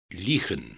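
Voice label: "liegen", Dutch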